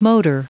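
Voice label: "motor", English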